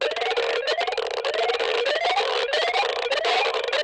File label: Enemy Alarm, Dialog Barks Battle; Warframe; Lasrian